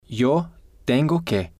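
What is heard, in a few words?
Spanish, male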